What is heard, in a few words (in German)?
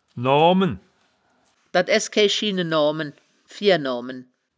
"noamen", wittlicher; das wittlicher woerterbuch; woerterbuch vertonung